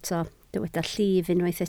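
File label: "llif" Welsh, spoken word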